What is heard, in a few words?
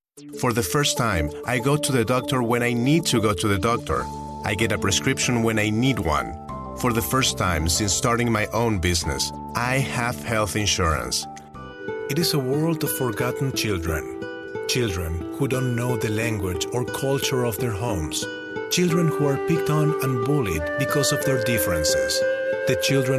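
English Accent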